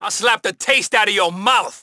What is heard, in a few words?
COP TRAFFIC HISPANIC DRAW BATON; GTA IV